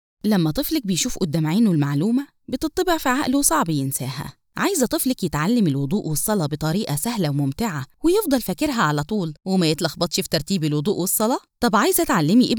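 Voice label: AR F commercial EG, Egyptian Arabic, female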